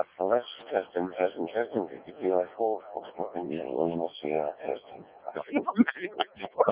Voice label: decoded speech, 7177KHz